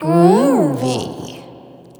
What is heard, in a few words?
"Groovy" Spring Rev, Swingin Chiks Spoken